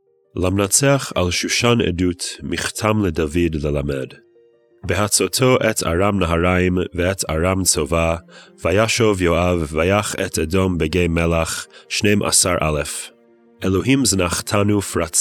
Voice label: poetry and prayer psalm hebrew